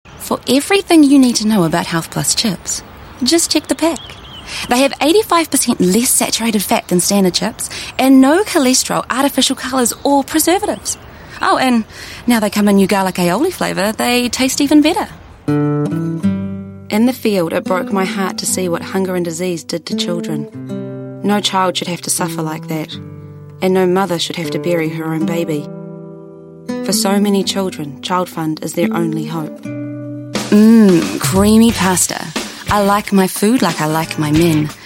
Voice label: NZ English Commercial

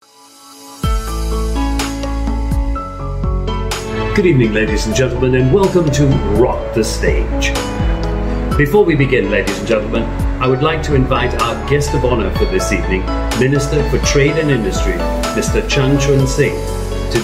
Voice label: Asian English Showreel

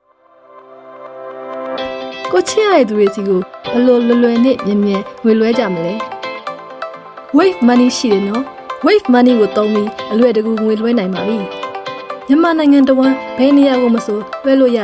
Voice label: Burmese; WaveMoney

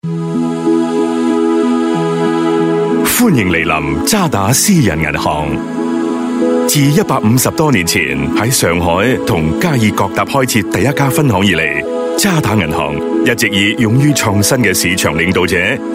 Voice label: Cantonese, Cantonese Male

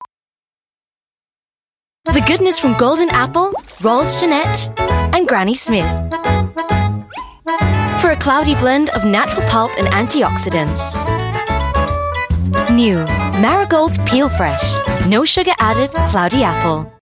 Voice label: Asian English TVC; Marigold Apples

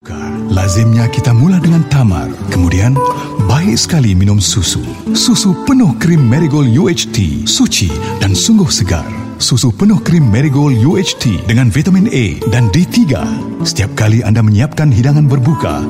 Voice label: Malay TVC

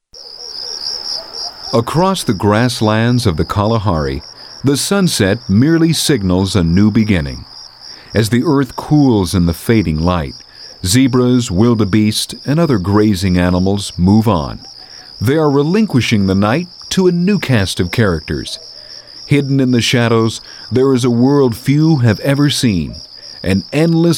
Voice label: US English Narration

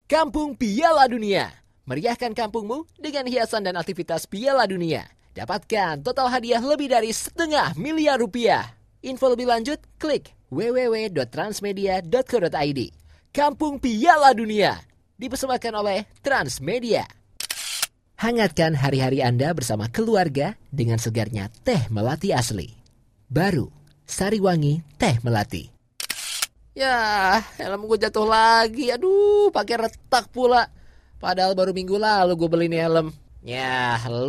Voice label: Bahasa Indonesia Voice Reel

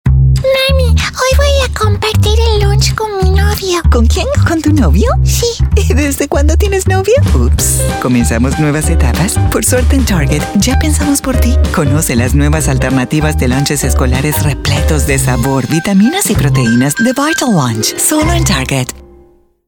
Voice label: Latin Spanish; Target

